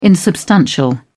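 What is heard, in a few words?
"insubstantial", British English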